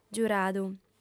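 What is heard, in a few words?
"giuradu", Sardinian, female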